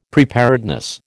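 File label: "preparedness", American English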